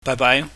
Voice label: "baaibaai", Cantonese

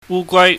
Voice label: "wu gwai", Cantonese

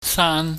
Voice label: "saan", Chinese

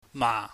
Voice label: "ma", Chinese

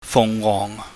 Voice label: "fuhngwohng", Cantonese

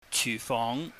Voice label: "chyuhfong", cantonese

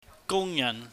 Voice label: "gungyahn", Cantonese

